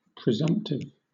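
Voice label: "presumptive", British English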